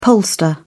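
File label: "pollster", British English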